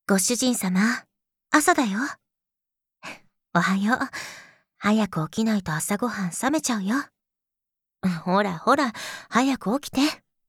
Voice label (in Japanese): ダークエルフのメイドさんのおはようボイス